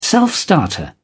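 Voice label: "self-starter", British English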